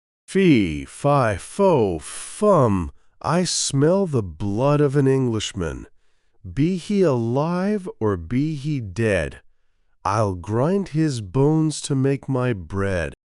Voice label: male US

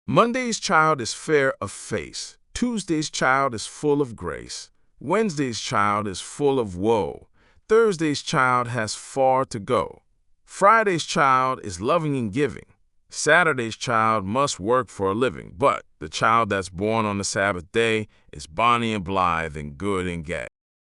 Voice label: male us; Mondays Child

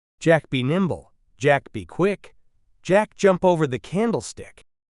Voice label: male US, classic rhymes; Jack be Nimble

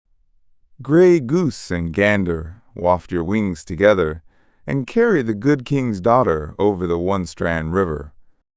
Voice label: male us; Gray Goose and Gander

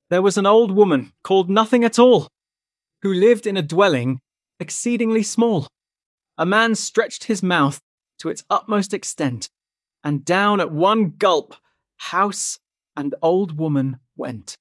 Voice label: male brit; There Was an Old Woman

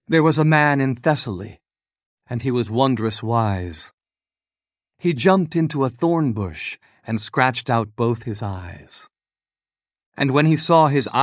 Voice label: There Was a Man in Thessaly, male, US

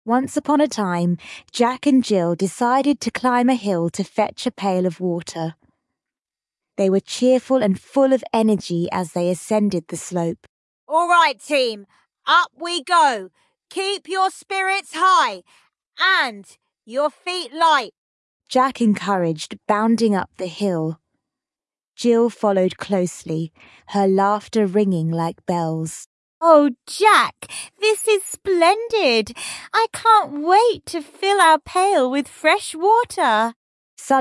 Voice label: fem brit story; Jack and Jill

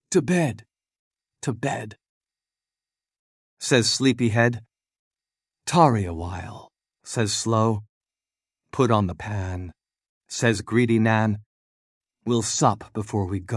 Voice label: To Bed To Bed male us

